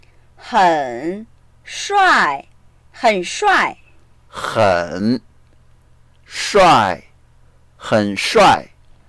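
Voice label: "hen3 shuai4", Mandarin Chinese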